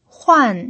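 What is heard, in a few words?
"huan", fourth tone, Mandarin Chinese pinyin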